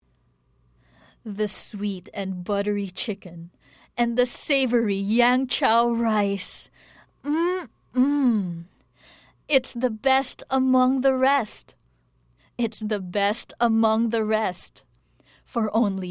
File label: Taglish (Sultry, Inviting, Seducing)